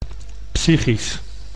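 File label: "psychisch", Dutch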